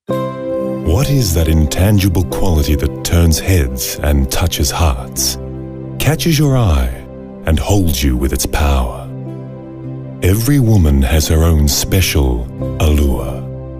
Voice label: Voice Over